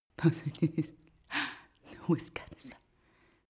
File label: female whisper sequence